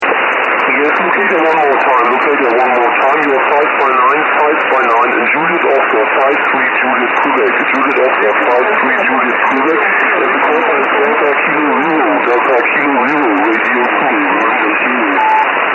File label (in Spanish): esporádica, con una señal floja que se confunde con el ruido; DK0RZ; RX en IN52